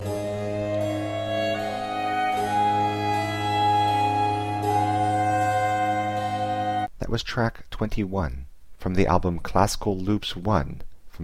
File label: american baroque spoken